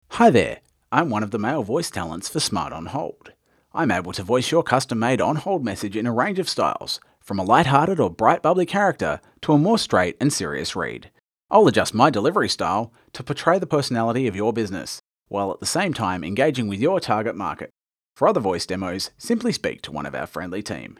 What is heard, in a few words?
male; Voice Over artists; Smart On Hold Messages